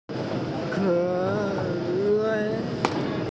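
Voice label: "ke xue", Mandarin Chinese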